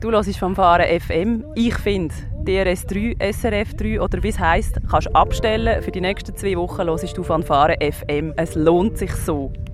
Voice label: Station ID; Vollchorn